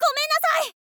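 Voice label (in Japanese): "gomennasai", girl, Japanese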